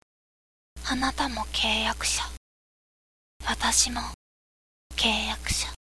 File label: girl